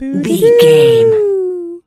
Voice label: voice fun character cute cartoon